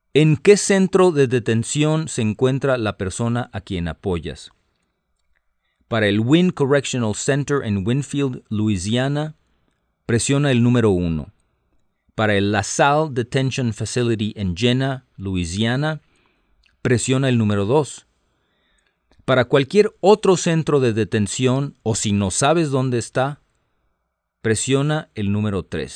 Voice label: Spanish, hotline